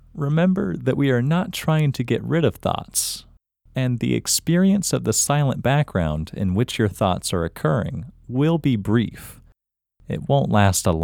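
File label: Male, India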